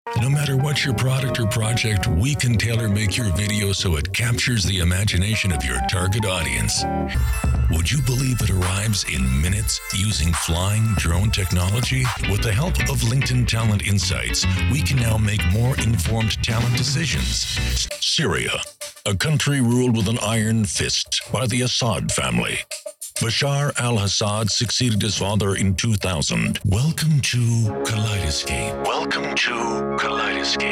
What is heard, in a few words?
Narration